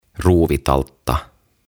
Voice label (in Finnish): "Ruuvitaltta", "Screwdriver"